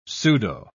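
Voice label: "pseudo-", British English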